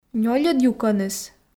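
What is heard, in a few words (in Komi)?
нёльӧд юкӧныс, Komi, female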